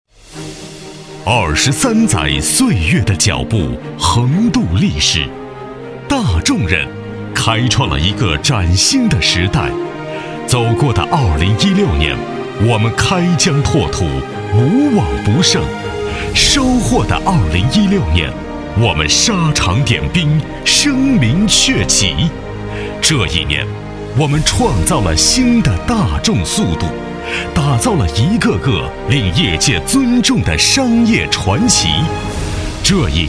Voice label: 男-专题-大气; 大众 卓越

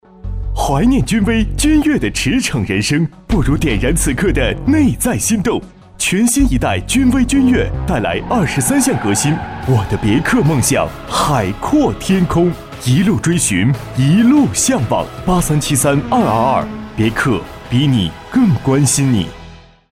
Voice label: 男, 广告, 汽车高端时尚; 九江别克

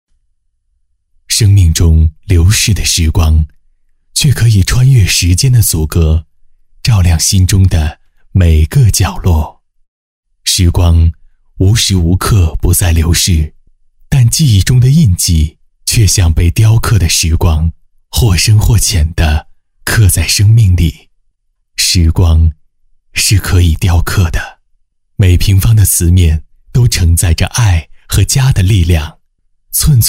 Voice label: 男 广告; 桃之韵广告

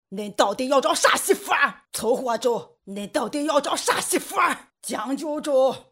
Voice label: 模仿 山东方言版凶老太

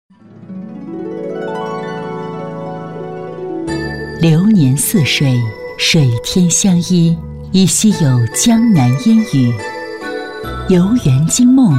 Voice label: 女3-专题-宣传片, 女; 百花电影节

